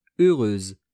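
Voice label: "heureuse", French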